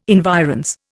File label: "environs", Indian